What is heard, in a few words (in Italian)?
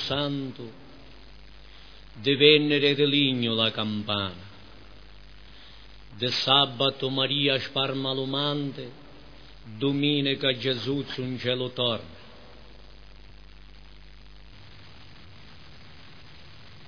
a sittimana santa recitato